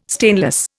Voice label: "stainless", Indian